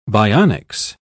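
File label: "bionics", British English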